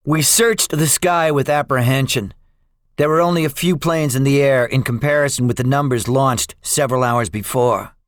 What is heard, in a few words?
debriefing, english; us guadalcanal; War Thunder